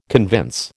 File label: "convince", American